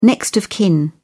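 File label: "next of kin", British English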